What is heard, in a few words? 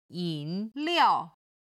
"yin3liao4", chinese